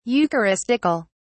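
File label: "eucharistical", British English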